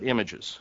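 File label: imaGES, American English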